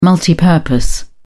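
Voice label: "multipurpose", British English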